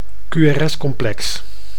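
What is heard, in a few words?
"qrs-complex", Dutch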